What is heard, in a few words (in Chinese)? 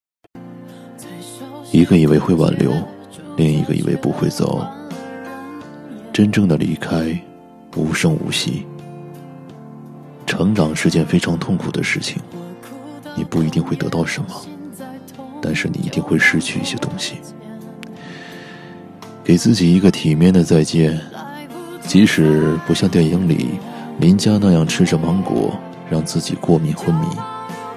电台 主持; 前任